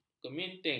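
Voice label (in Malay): "keminting", Malay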